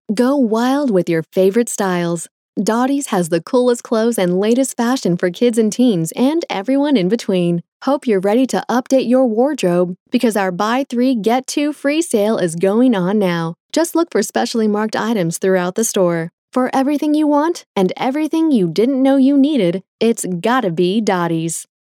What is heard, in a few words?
Professional